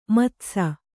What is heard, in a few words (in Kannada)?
"ಮದಿಸು", Kannada